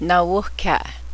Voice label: my hat, Cheyenne

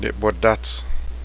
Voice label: crane, Cheyenne

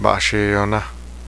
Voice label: robin, Cheyenne

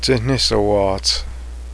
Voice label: lame deer forks, cheyenne language

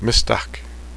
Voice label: screech owl, Cheyenne

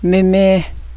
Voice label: grandpa baby talk, cheyenne language

